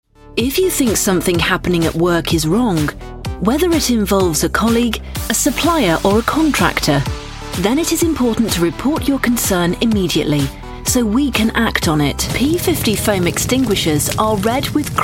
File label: corporate